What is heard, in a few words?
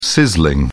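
"sizzling", British English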